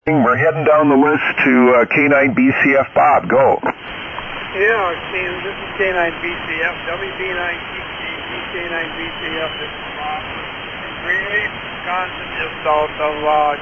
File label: Kenwood TS-520S & Hand Mic; Greenleaf, Wi.